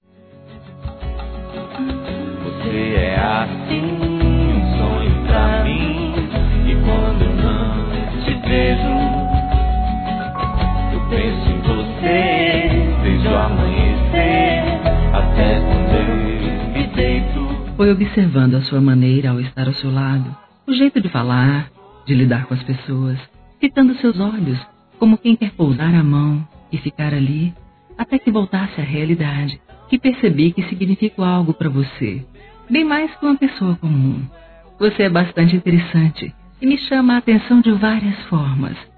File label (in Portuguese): Pedido de Namoro Feminino; Telemensagens; Sensuais